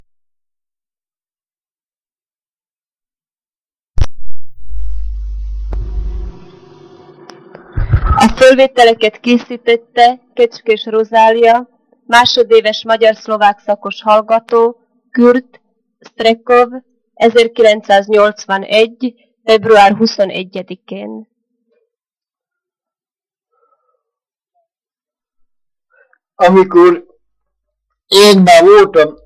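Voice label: dialect collection; nyelvjaras gyujtesek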